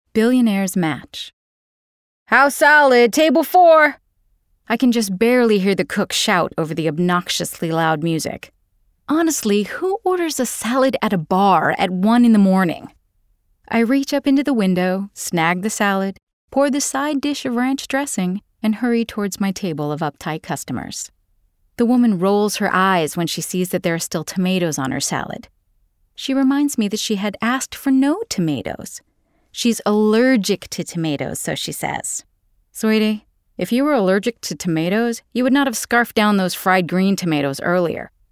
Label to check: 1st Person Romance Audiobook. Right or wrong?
Right